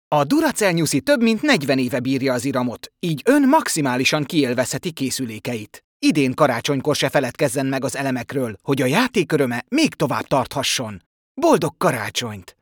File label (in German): Werbung HUN